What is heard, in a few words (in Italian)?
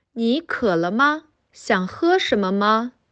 Cinese